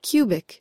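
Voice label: "cubic", American English; pronunciations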